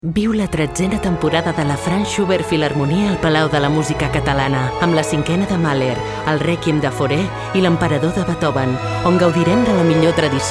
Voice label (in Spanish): SPOT INSTITUCIONAL CULTURA